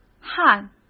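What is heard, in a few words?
"旱", Mandarin Chinese; hsk; chinese-flashcards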